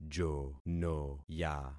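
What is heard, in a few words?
"jonoya", concatenated syllables; Affixing experiment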